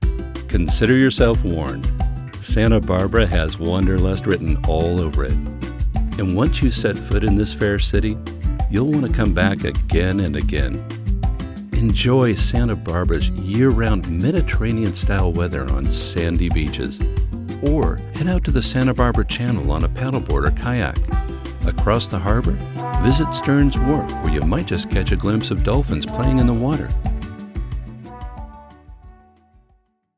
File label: Commercial; Santa Barbara